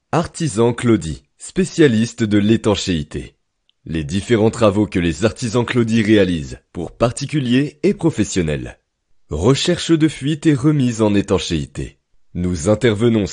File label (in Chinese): 法语男声